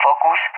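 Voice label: "Focus", English; CallSign; DCS; Allied Flight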